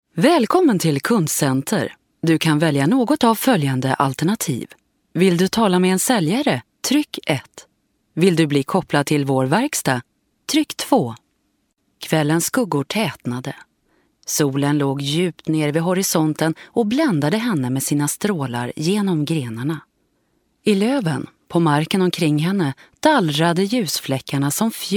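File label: Swedish informative, female; svensk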